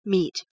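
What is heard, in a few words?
"mete", English word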